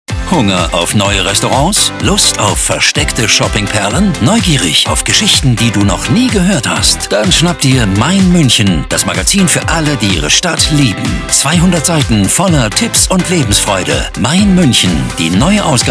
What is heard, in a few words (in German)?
Funkspot; Mein Muenchen 2025; werbung imagefilm